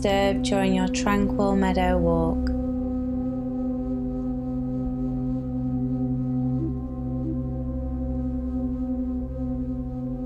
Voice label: Relaxing Guided Meditation For Cultivating Forgiveness